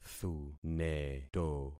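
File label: "thuneto", concatenated syllables; Affixing experiment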